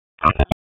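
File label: Raleigh Police Southeast District Dispatch; wake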